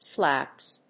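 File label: "slaps", English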